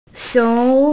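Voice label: "xiong2", Mandarin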